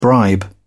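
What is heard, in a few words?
"bribe", English, British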